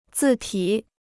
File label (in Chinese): "字体", Chinese